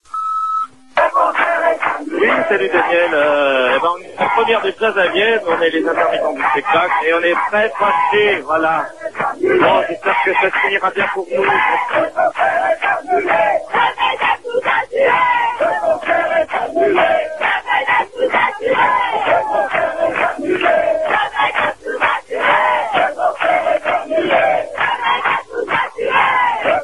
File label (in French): Intermittents repondeur; Vienne